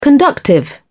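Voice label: "conductive", British English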